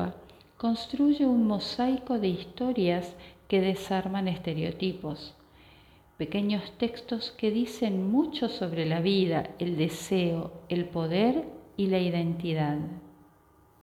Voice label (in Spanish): Mujer; El libro de las mujeres